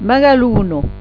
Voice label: "magalona", Occitan

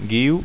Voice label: "guiu", Occitan

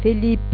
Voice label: "felip", Occitan

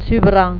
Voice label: "cubran", Occitan

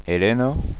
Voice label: "elena", Occitan

